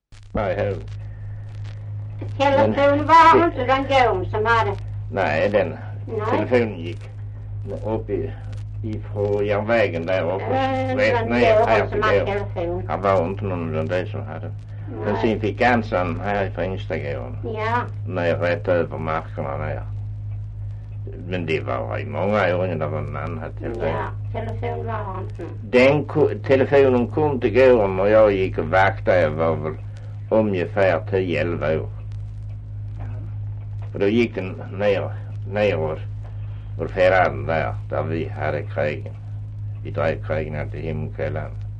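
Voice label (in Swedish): Östra Ingelstad Skåne, Svenska sagor dialekter; Folkminnen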